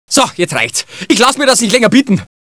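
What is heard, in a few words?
"jetzt", German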